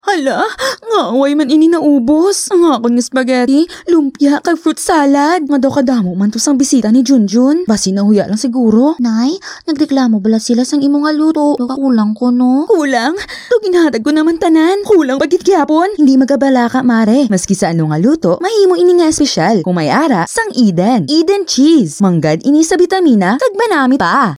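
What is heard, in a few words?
Hiligaynon Female Voice Over Voice Sample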